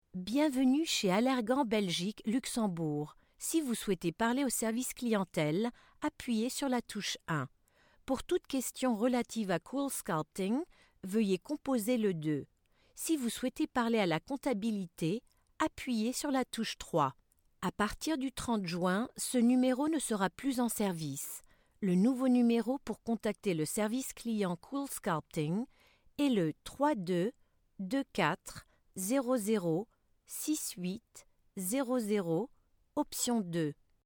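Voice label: French IVR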